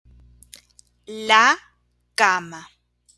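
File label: "la cama", Spanish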